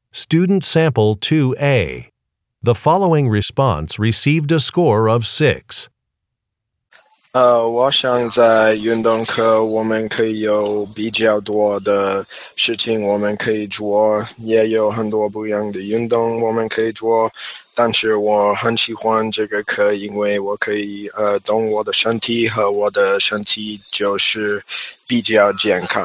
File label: chinese conversation